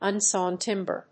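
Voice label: "unsawn timber", English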